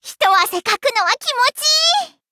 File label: upgrade, ja; Cookie Run